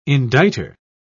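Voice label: "indictor", British English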